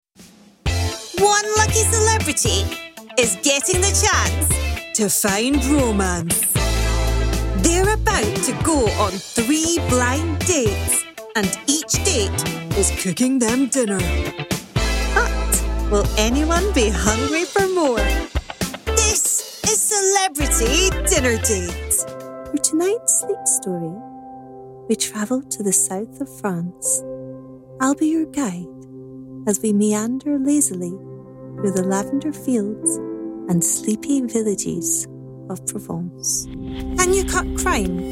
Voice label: Narration Documentary Ident Corporate